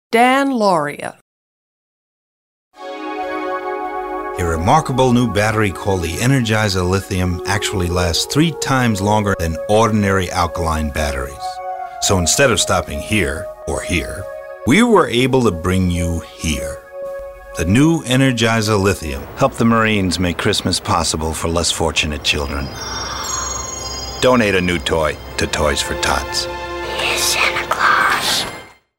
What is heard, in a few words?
commercial; Innovative LA